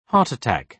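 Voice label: "heart attack", British English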